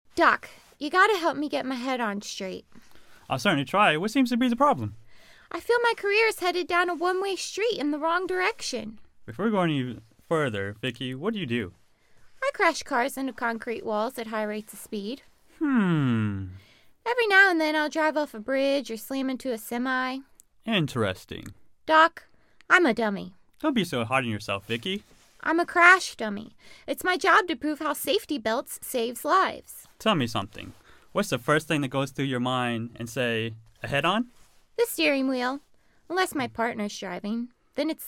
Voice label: voice over

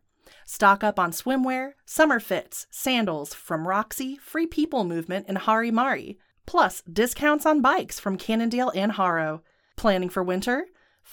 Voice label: Semi Annual Sale Youtube ad; Monster Markdowns

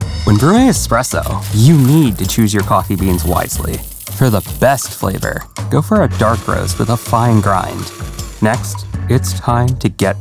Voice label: Narration

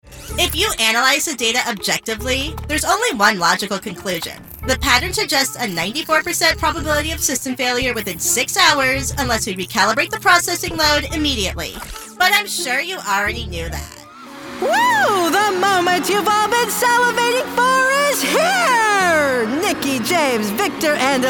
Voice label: Video Game Demo Reel

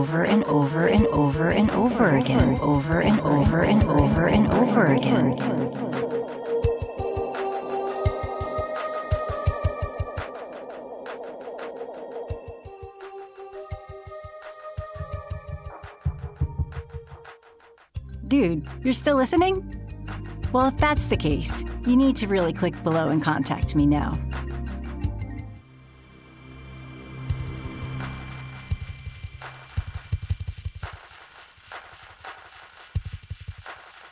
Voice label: On Hold Spoof

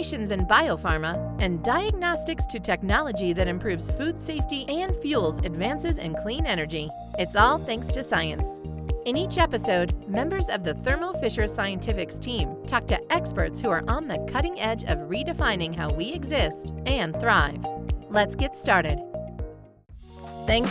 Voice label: Podcast Intro and Outro

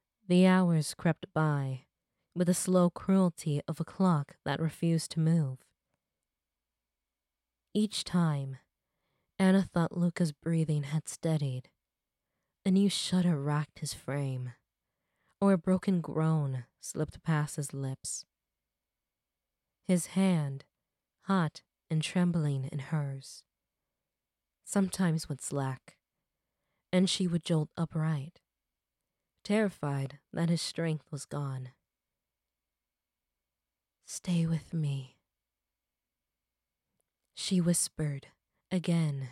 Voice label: Female Narrator

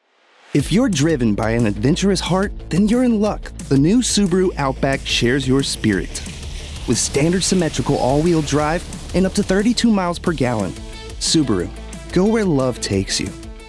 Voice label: Commercial

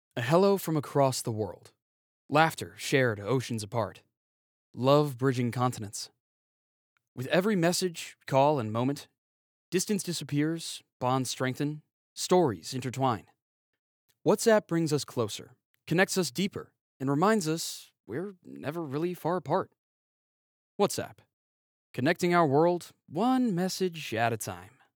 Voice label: Commercial Spot; WhatsApp

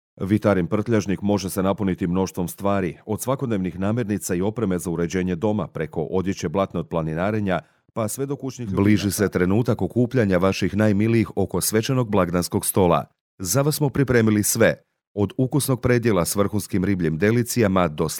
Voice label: croatian; sample mix

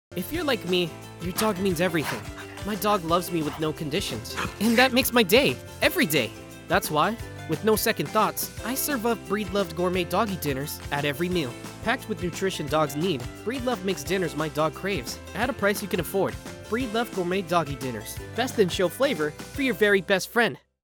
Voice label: Gourmet Doggie Dinners Spot; 21 year old Voice Actor

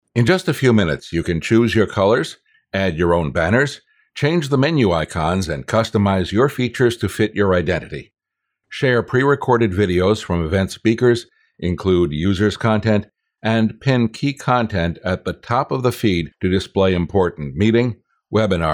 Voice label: E Learning

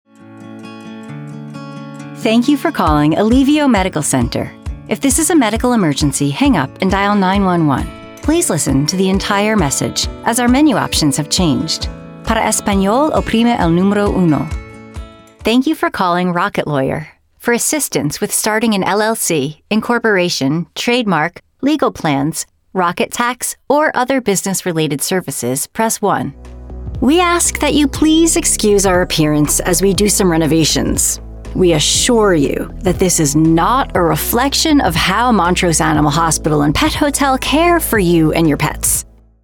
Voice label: IVR

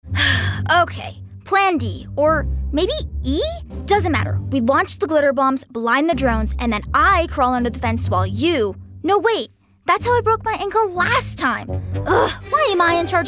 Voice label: Animation

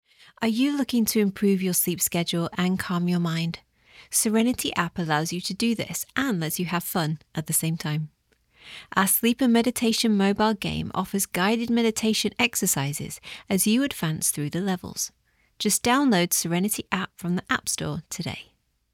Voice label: Online ad

